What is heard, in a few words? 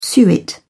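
"suet", British English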